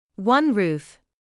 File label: "one roof", English